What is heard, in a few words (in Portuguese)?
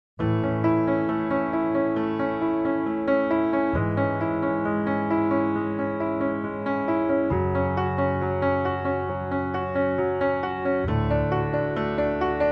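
MENSAGEM ROMANTICA male, telemensagem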